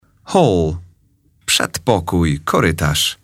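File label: "hall", English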